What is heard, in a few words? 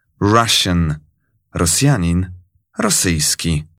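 "russian", English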